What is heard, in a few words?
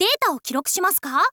info girl "data wo kirokushimasuka"